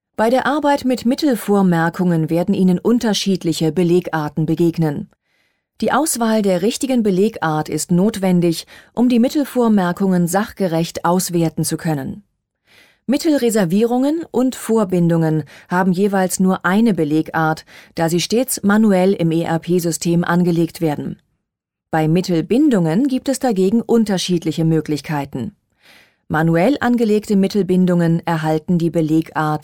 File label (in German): e learning; sap